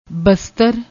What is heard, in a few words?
"bastar", Punjabi